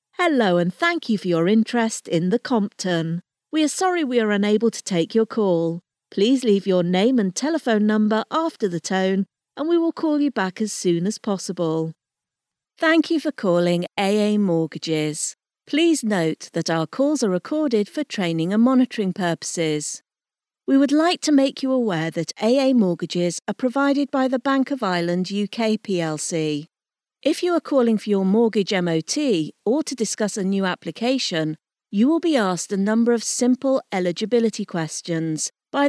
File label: IVR On Hold; voicemail messages